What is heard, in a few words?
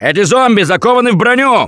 see armored, russian, Survivor Voice; Gambler